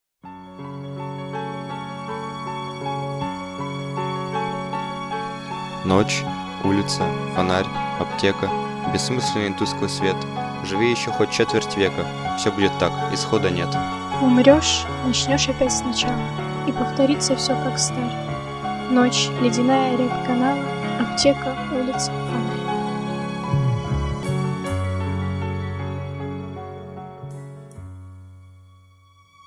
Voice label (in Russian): стих под музыку; Блок